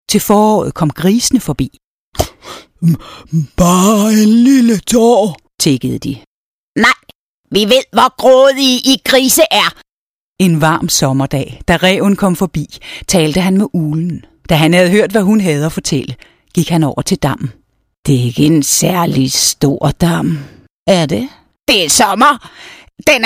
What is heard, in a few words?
danish animation